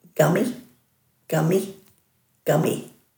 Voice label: "gami", Dhurga